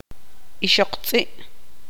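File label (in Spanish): "perra", Tz'utujil, female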